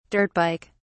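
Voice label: "dirt bike", British English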